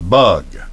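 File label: "bug", English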